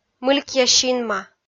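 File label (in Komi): "мылькъя синма", Komi, female; kpv audio dict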